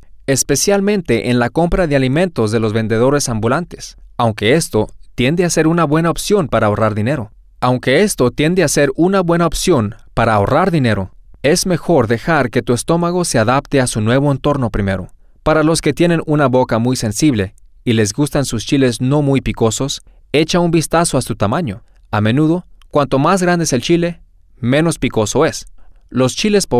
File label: sp mex prueba La Cocina, spanish